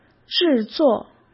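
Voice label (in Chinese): "制作", Mandarin Chinese; chinese-flashcards; hsk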